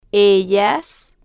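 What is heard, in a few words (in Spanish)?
"ellas", spanish, female, slow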